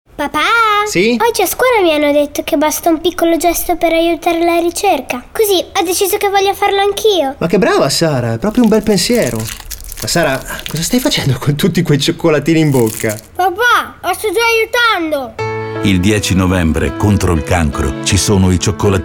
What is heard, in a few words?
SPOT RADIO; AIRC; Cioccolatini della Ricerca 2018